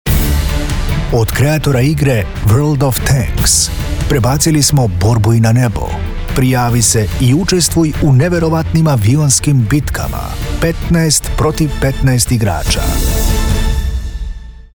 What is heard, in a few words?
Trailer Serbian Voice Over; WOT